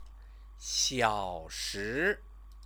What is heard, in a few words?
"xiaoshi", Chinese; 小 时; hour; measure; Chinese lessons